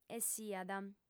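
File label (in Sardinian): "essiat", female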